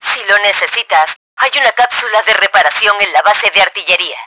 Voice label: low health, Spanish; Battle Engine Aquila; XBOX